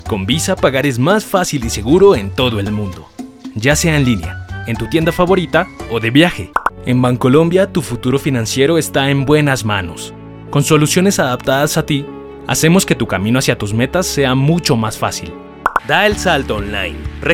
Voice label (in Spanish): neutro, espanol neutro